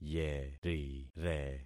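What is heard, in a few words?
"yerire", concatenated syllables; Affixing experiment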